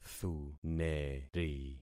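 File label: "thuneri", concatenated syllables; Affixing experiment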